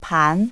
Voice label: pan, chinese pronunciation